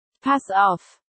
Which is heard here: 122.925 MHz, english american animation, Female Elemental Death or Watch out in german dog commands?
Watch out in german dog commands